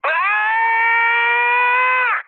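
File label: Polish damaged pilot mood high; speech; War Thunder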